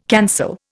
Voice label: "cancel", Indian

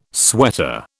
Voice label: "sweater", British

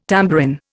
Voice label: "tambourin", Indian

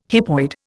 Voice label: "hypoid", Indian

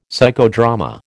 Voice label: "Psychodrama", American

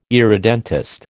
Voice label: "irredentist", American

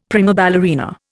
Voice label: "prima ballerina", Indian; Dictionary

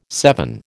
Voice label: "seven", American

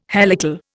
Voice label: "helical", Indian

